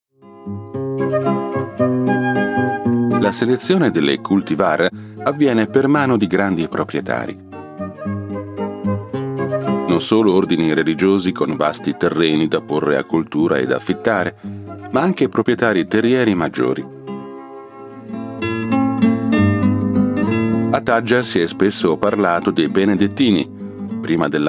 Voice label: Docu Narration